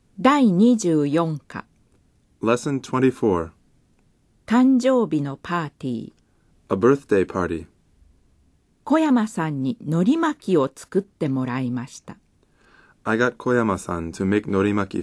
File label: Dialog